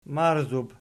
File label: "marzub", Archi